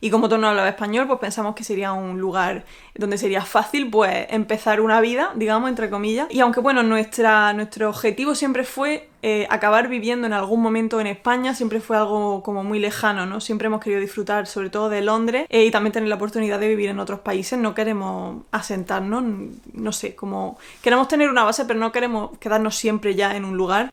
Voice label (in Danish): Spansk